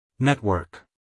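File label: "network", American English, male; everyone-can-use-english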